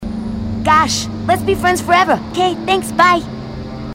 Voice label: female marine

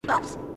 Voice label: ooops, grunts

